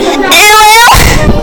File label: uwu loud